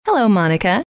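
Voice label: "hello", female; trainer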